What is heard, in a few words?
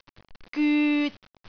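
"gyut3", Cantonese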